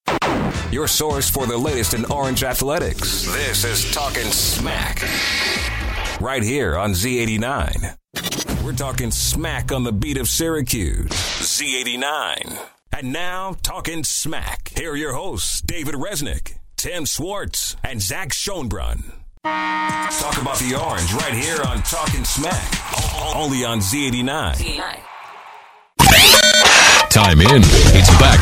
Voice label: Imaging